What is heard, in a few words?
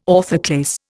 "orthoclase", Indian